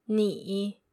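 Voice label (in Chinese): "ni", Mandarin Chinese, female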